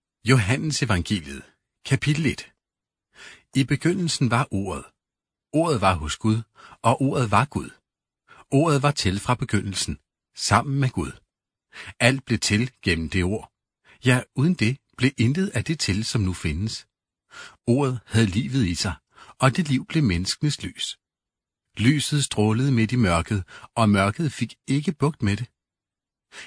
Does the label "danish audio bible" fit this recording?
Yes